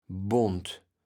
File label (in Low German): "bont", kaevels platt